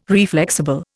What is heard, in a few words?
"reflexible", Indian